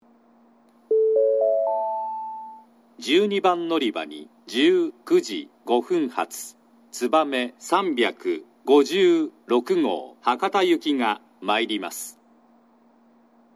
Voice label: 新水俣, 12番のりば接近予告放送; つばめ356号 博多行き; shin minamata

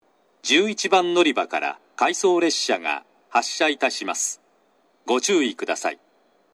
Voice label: 長崎 西九州新幹線ホーム 自動放送, 11番のりば発車放送（回送列車）

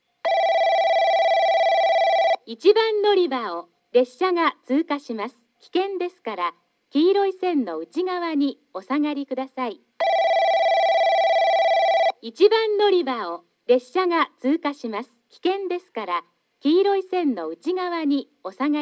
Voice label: 通過放送; JACROS簡易詳細型・JACROS簡易型

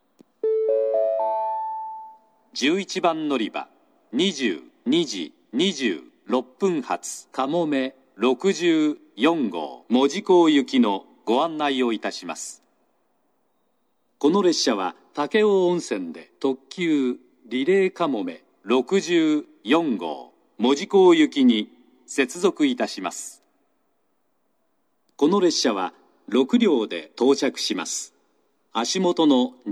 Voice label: 11番のりば案内放送; かもめ64号 門司港行き; 15分前、9分前の2回流れます。; スピーカーは安全柵内蔵型; shin omura

